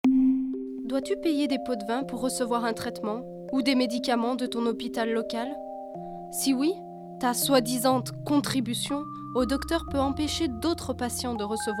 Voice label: Corrupt French Music